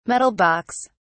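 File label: "metal box", English, British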